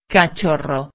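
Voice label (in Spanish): "cachorro", Spanish; ortografia; heterosemanticos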